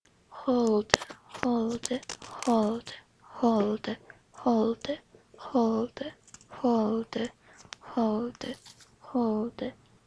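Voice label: "hold", spoken word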